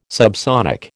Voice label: "subsonic", American